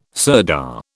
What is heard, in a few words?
"sirdar", British